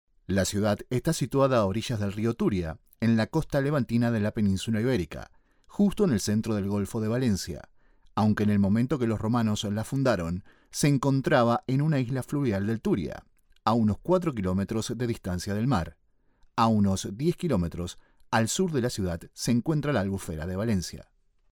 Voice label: argentina argentinian voiceover

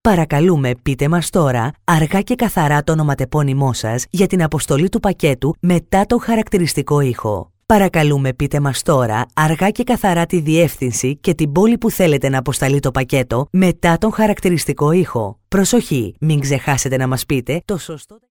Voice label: locutora griega greek female voiceover

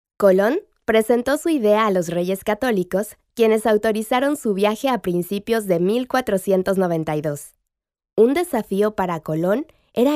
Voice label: locutora mexicana mexican voiceover; Spanish cartoon dubbing voice over agency

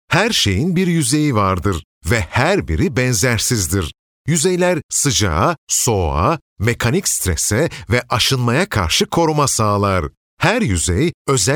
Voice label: Turkish voiceover, locutor turco

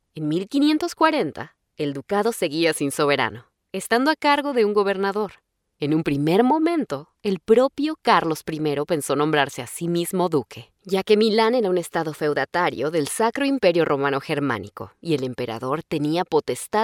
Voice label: locutora mexicana mexican voiceover

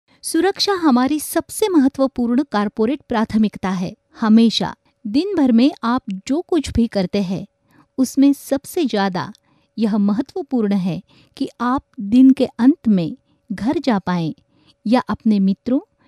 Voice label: hindi indian voiceover locutora hindi india; locutora hindú

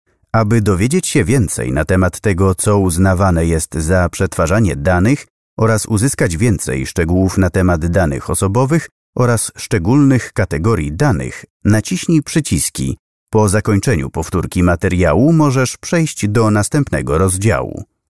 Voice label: locutor polaco polish voiceover; locutor de Polonia

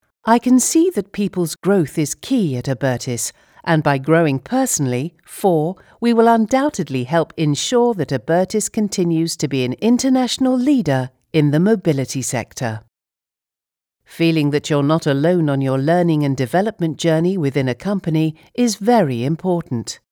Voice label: locutora inglesa english voiceover; inglaterra

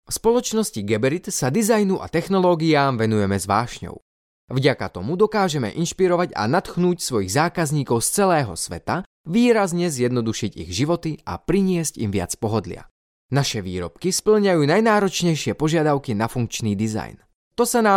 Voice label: locutor eslovaco eslovakian voiceover

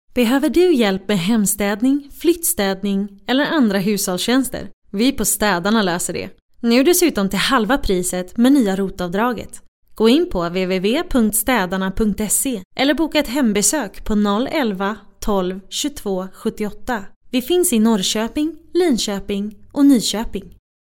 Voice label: swedish female voiceover locutora sueca

